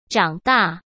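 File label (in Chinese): "长大", Mandarin Chinese; chinese flashcards; hsk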